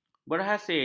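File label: "berhasil", Malay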